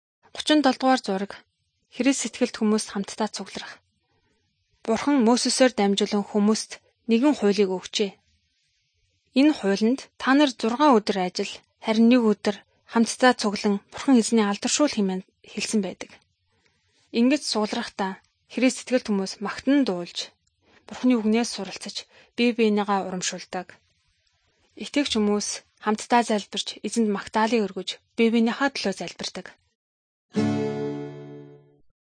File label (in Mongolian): good news mongolian